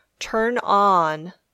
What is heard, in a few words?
"turn on", English